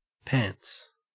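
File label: "pants", Australian English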